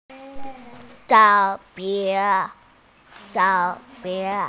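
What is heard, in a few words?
"cao3 mei2", Mandarin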